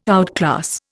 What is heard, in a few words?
"outclass", Indian